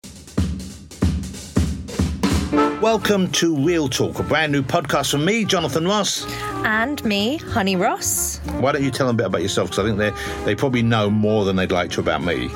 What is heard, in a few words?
Voiceover; Jonathan Ross